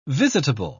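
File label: "visitable", English, British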